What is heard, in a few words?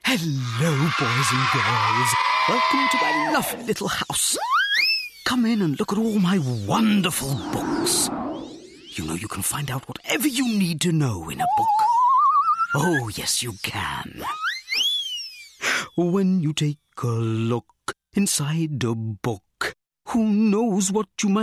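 Animation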